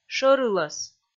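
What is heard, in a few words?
"шӧр ылӧс", Komi, female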